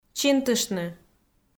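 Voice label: "чинтыштны", Komi, female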